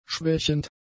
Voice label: "schwächend", German; adjectives